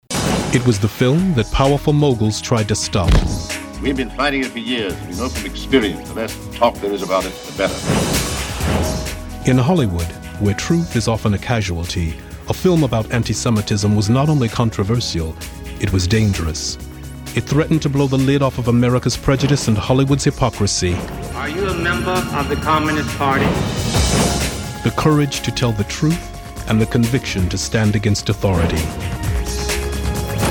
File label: Male